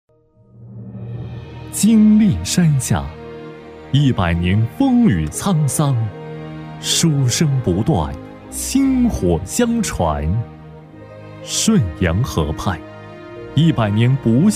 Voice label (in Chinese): 男 学校宣传; 仁张学校